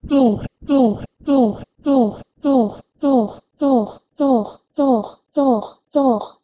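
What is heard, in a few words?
Proto-Indo-European dolh1 to Armenian toR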